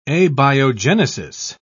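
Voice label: "abiogenesis", British English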